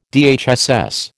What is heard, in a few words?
"DHSS", American